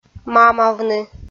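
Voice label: "мамавны", Komi, female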